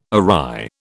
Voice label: "awry", British